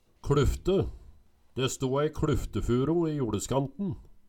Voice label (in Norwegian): kLufte, Numedalsmål; De sto ei kluftefuro i joLeskanten